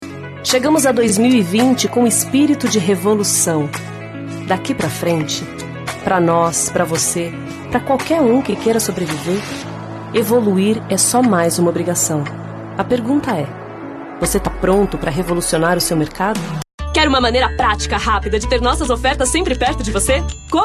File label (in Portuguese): institucional